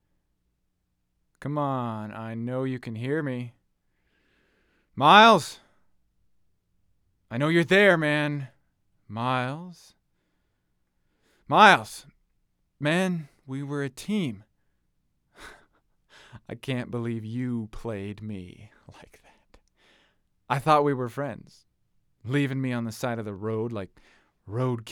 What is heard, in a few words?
Phone Call; Broken Road ADR